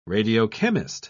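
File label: "radiochemist", British English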